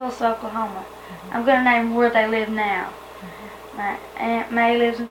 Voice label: Conversation with 12 year old white female Arkansas, american english dialect